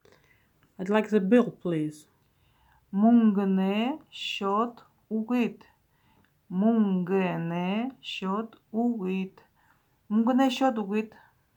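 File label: Buryat I'd like the bill please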